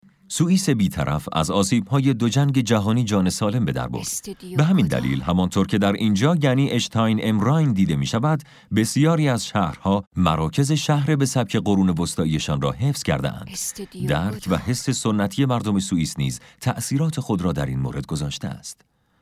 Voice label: documentary